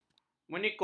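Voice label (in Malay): "meniskus", Malay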